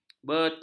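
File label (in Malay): "betul", Malay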